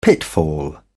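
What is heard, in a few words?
"pitfall", British English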